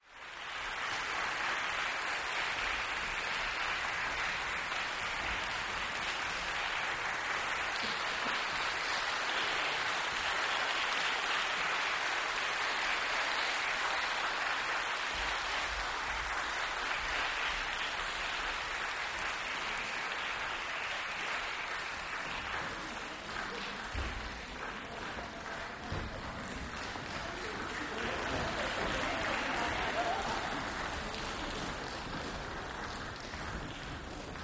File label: Closing announce